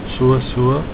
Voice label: so so, Afrikaans